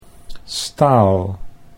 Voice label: "staal", Dutch